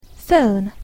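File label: "phone", English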